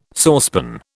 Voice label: "saucepan", British

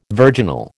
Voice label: "virginal", American

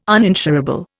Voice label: "uninsurable", Indian

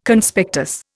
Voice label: "Conspectus", Indian

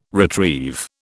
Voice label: "retrieve", British

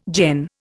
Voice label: "gen", Indian

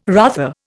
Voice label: "rather", Indian

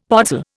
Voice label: "podzol", Indian; Dictionary

